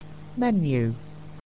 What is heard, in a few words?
"menu", English